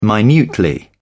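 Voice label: "minutely", British English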